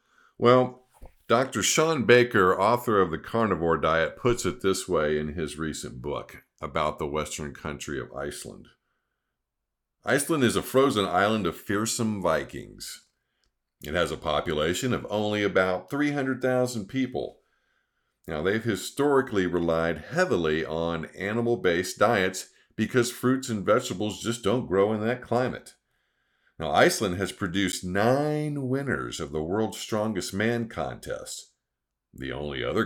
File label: Sample Audiobook Reading; Diet Nutrition Book The Carnivore Diet